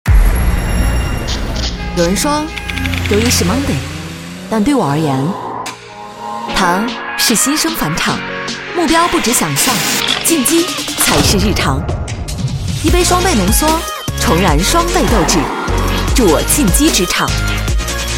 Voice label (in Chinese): 女 广告; 奥迪A3